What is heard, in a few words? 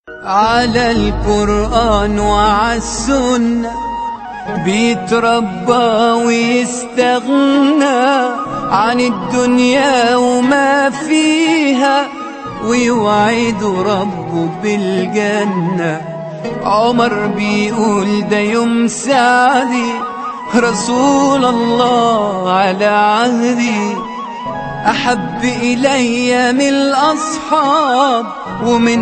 Quran Mp3 Ringtone; Islamic Ringtones